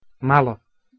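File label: "malo" Bosnian, male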